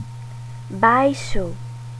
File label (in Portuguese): "baixo", portugues; adjetivos opostos